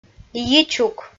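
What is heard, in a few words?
"йичук", Komi, female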